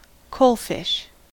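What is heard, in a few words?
"coalfish", US English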